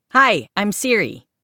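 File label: American English; en-US; iOS Sounds; quinn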